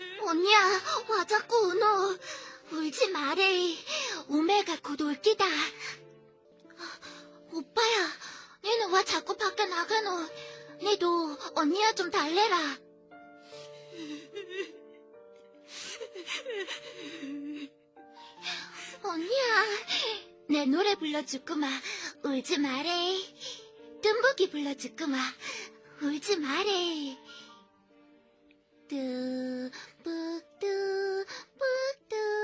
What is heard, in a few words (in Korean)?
10세가량 여아